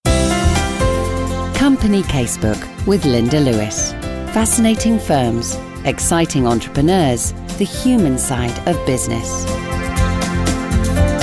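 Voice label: UK English, Neutral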